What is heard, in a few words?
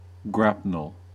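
"grapnel", US English; pronunciations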